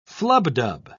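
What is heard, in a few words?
"flubdub", British English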